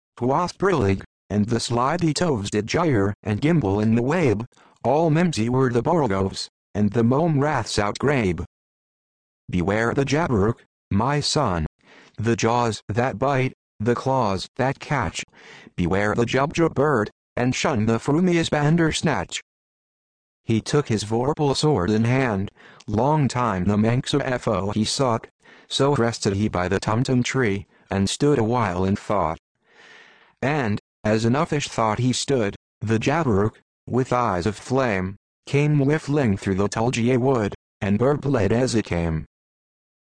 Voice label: Jabberwocky reading; Alex the Macintosh voice; speech synthesis